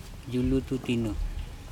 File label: "julutu tino", andamanese; wet cloth